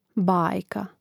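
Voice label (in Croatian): "bajka", Croatian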